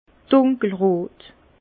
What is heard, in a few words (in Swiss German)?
"dunkelrot", Bas Rhin; vin; rouge foncé